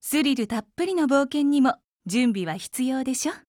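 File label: loading, Japanese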